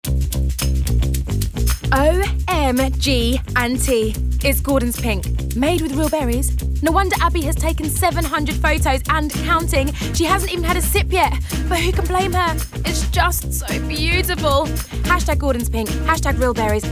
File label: commercials